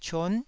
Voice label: "cion9", Taiwanese

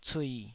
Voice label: "cui3", Taiwanese

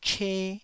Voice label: "che1", taiwanese

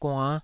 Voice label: "guann5", taiwanese

